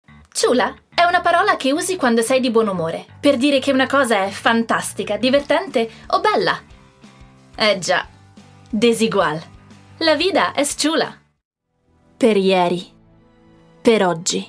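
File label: Italian, General; GoLocalise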